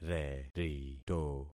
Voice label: "rerito", concat syllables; Affixing experiment

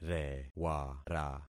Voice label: "rewara", concat syllables; Affixing experiment

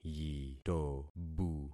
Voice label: "yitobu", concat syllables; Affixing experiment

